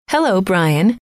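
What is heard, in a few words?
"hello", female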